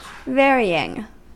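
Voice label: "varying", US English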